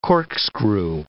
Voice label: "corkscrew", English

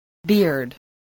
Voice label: "beard", English

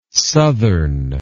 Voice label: "sothern", English

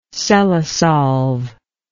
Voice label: "cellosolve", English

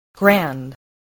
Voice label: "grand", spelling

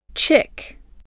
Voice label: "chick", English, voice

